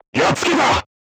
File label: voice message direct hit, crew tanks japanese